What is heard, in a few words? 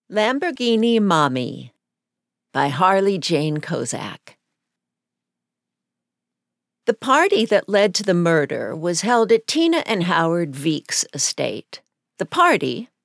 contemp fiction sample; Harley Jane Kozak